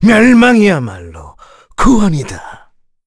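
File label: Vox Victory, Korean; heroes; KaselB; kings raid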